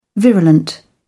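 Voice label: "virulent", English, British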